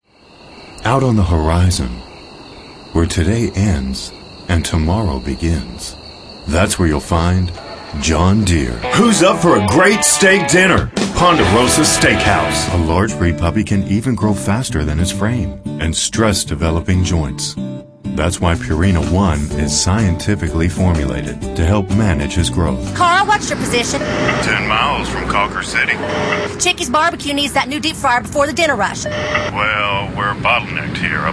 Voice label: male, mid30s mid40s warm

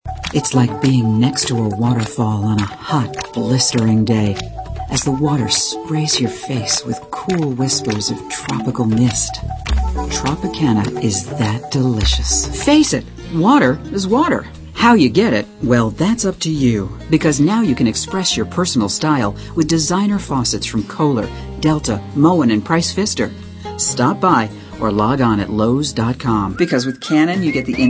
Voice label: female, 30s 40s beyond

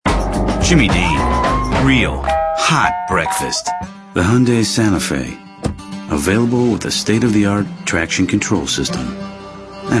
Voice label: male, mid 30s mid 40s, cool

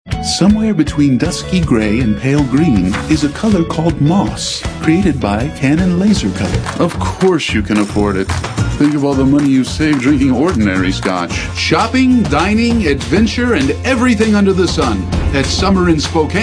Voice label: male, sophisticated

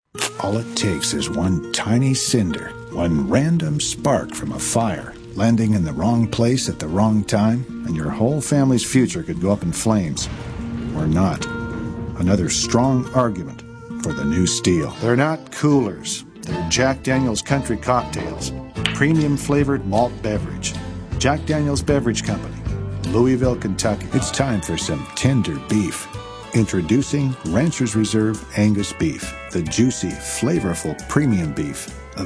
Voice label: male, 50s storyteller

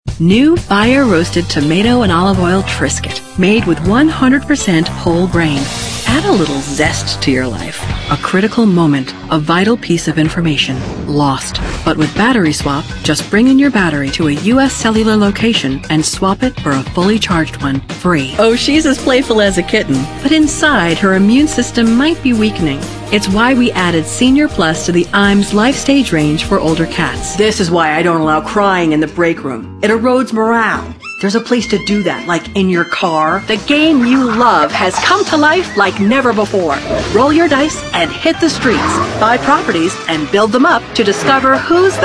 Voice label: female 30s 40s

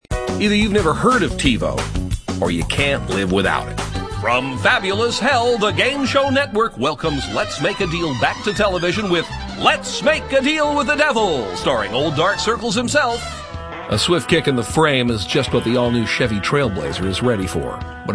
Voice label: male, natural character

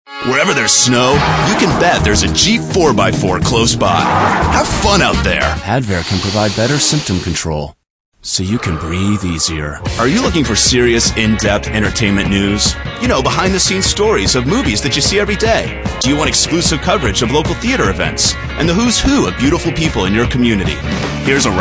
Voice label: male, mid 30s mid 40s, cool

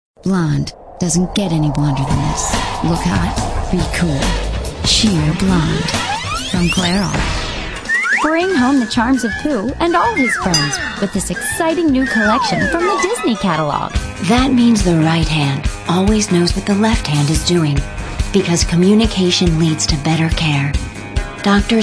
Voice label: female, late 30s early 40s, clean

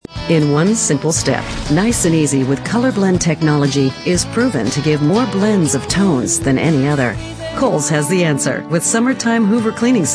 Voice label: female 30s 40s

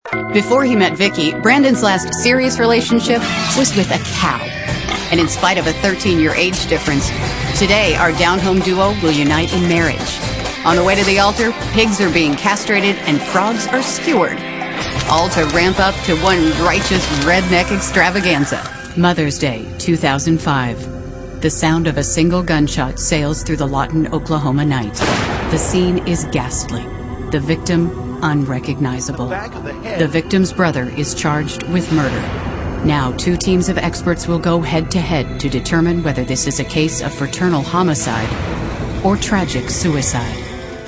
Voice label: female narration

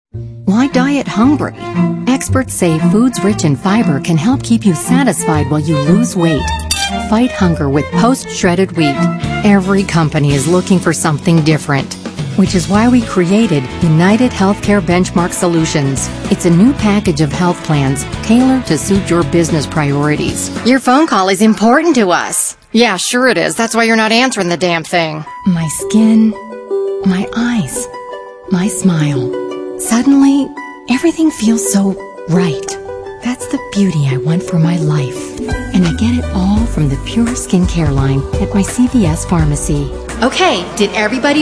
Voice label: female, late30s early40s clean

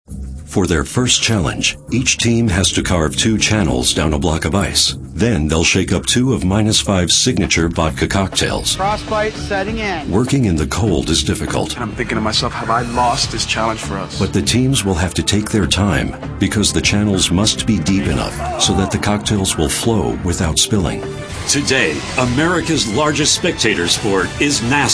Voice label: male narration

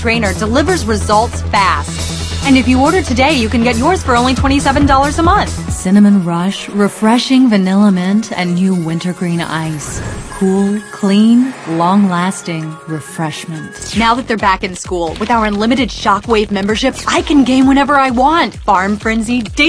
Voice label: female, late20s late30s clear

